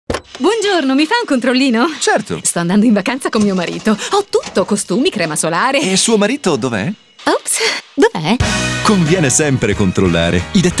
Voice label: Spot Car Service; BOSCH